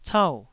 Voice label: "cau4", taiwanese Syllables